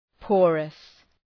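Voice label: "porous", English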